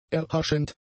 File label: "erhaschend", German; adjectives